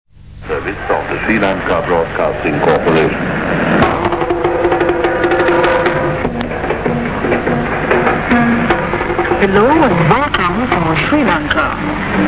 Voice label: Sri Lanka Radio